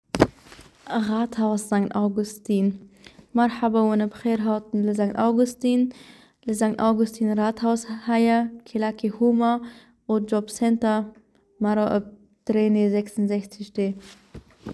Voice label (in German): kurdisch; Rahthaus